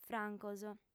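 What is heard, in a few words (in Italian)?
"francos", lingua sarda, female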